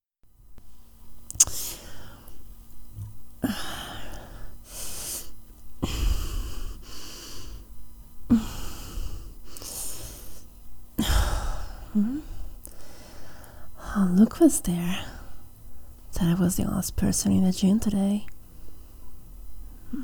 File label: ASMR Gym Girl helps you training